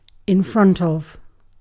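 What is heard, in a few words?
"in front of", British English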